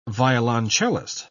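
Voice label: "violoncellist", English, British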